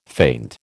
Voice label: "faint", American